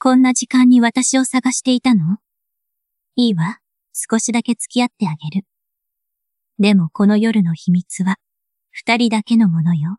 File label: anime voice